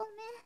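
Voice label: "gomen", Japanese, girl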